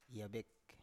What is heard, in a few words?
"(ya)bek", Biak